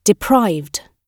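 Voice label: "deprived", British English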